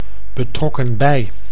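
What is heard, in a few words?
"betrokken bij", Dutch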